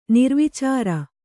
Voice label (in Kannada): "ನಿರ್ವಿಚಾರ", Kannada